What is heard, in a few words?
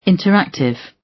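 "interactive" British English; The arts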